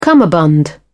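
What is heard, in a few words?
"cummerbund", British English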